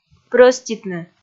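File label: "прӧститны", Komi, female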